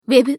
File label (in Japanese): ウィザード女 ウェブ